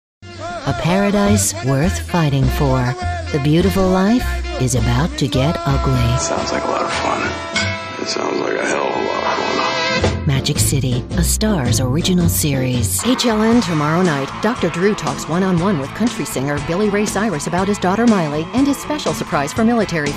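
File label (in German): US English Kommentar Freundlich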